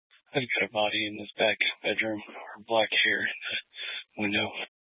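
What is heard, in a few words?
Sheriff Dispatch, scanner audio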